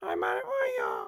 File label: Pig Chef, Voices